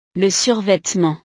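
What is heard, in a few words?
"le survetement", French; ropa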